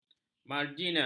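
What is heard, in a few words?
"marginal", Malay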